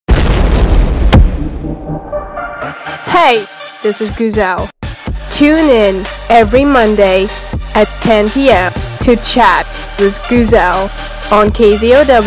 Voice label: Chat